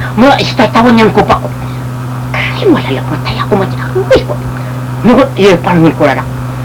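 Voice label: Yami language, male, Iranmilek; nimapo do kalilian a tao; “Okay, I have to get some rattan to make [a basket],” the woman kept on asking him (the alalabngan) to move faster.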